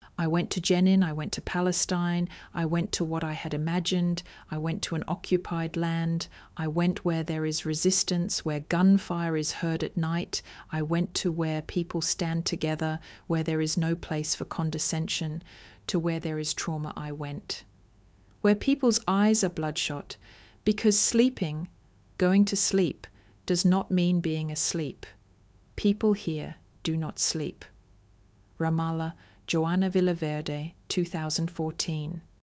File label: Poema eng